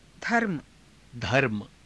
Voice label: "dharma", Hindi

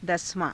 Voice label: "dasavaa~", Hindi

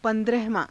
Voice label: "pa^drahavaa~", Hindi

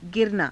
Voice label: "giranaa", Hindi

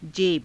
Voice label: "jeba", Hindi

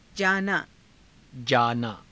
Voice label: "jaanaa", hindi

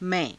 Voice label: "mai~", Hindi; [代]私; मैं

